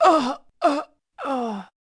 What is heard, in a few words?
female felon knocked out; superheroes2